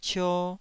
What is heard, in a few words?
"cio2", Taiwanese